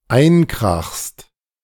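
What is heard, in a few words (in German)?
"einkrachst", German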